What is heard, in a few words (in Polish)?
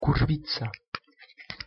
wymowa po polski "kurwica"